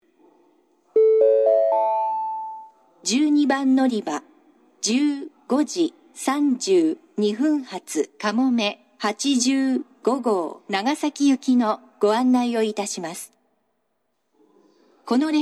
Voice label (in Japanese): 嬉野温泉駅 12番のりば遅延放送・遅れ約9分（かもめ85号 長崎行き）※西九州新幹線・英語放送あり